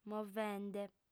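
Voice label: "movende", female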